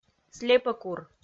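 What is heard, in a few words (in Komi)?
"слепӧкур", Komi, female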